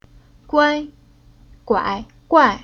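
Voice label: "Guai", Chinese syllable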